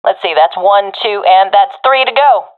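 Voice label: enemies left three, professional female; vo; agents; Source Model Packs; Counter Strike Model Pack; CS2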